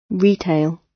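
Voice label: "retail", British; Business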